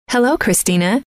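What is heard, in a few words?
"hello", female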